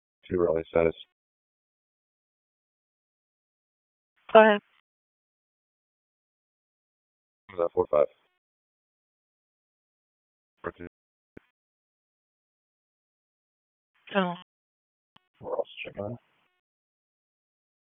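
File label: Police Southwest District Dispatch, p25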